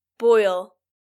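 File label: "boil", English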